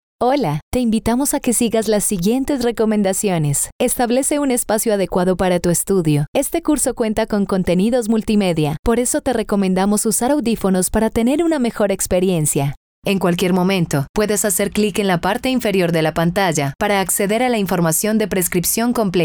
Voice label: eLearning